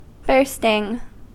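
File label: "bursting", US English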